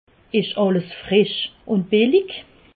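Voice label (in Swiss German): "billig", Alsatian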